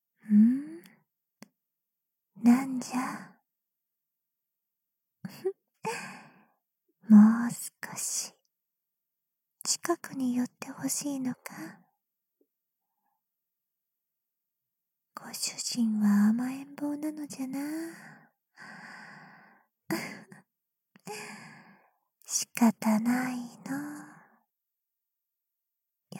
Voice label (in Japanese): 添い寝（囁き重視編集 効果音あり大きめ）, ASMR